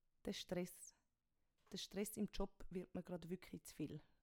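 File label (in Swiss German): "de Stress", Swiss German; Wortschatz Kapitel 1; Kapitel 1 Hauptwortschatz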